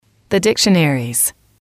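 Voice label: "the dictionaries", English, female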